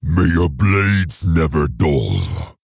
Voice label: May Your Blades Never Dull, Maghar Orc Military Guard, M